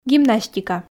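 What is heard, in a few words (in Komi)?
"гимнастика", Komi-Zyrian, female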